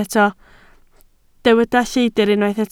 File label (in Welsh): "lleidr", Welsh